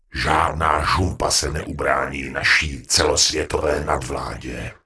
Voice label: Gnome Male Pissed; wowpreklad; czwow; GnomeVocalMale